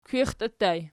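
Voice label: "kwixtii" ergative plural, Archi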